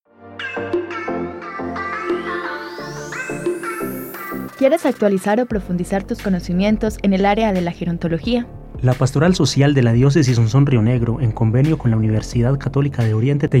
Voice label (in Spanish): promo seminario adulto mayor